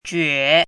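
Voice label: "juě", Chinese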